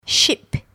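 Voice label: "ship", English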